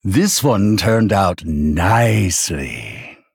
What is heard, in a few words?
Male Blood Elf Play